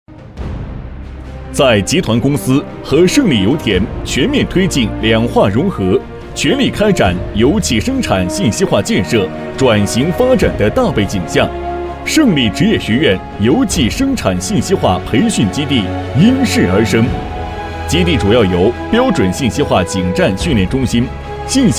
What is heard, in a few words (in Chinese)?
男 培训基地（正式力度）